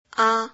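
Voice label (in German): Phoneme, chinesisch; a 5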